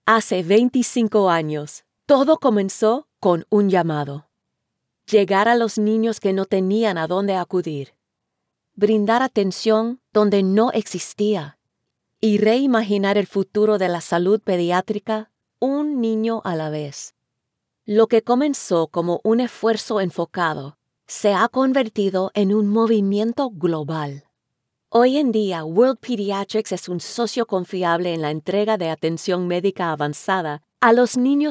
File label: Spanish Nonprofit Narration